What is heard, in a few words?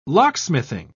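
"locksmithing", English, British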